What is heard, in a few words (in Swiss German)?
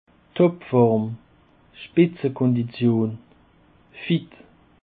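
"topform", Alsatian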